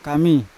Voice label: "kami" seed, Yawa